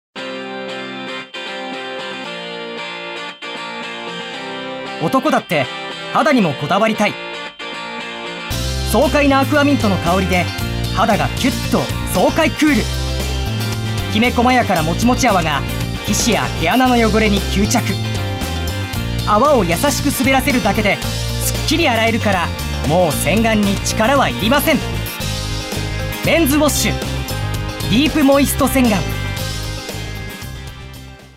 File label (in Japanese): ナレーション